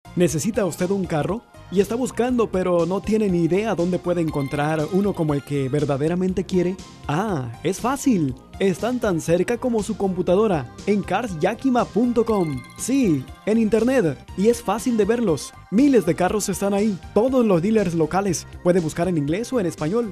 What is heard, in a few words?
Spanish; Cars Yakima